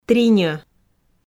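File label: "триньӧ", Komi, female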